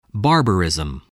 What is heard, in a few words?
"barbarism", English